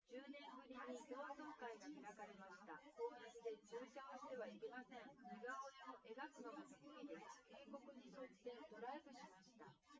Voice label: female; SiSEC08; SiSEC underdetermined